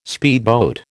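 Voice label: "speedboat", American English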